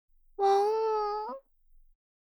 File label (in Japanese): 「わぉ～～ん……」 さびしい犬; 黒崎オノマトペ